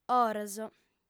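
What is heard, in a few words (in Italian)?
"oros", lingua sarda, fem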